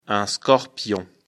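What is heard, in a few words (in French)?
"scorpion", French; afrikalan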